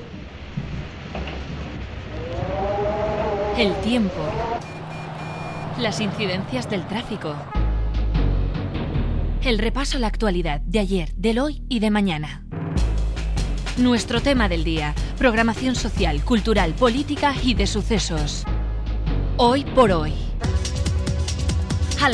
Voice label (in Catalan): falques de Radio; Castellón